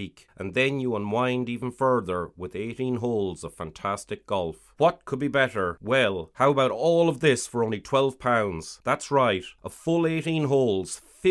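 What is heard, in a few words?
English General Irish; GoLocalise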